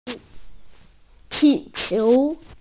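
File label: "qi4qiu2", Mandarin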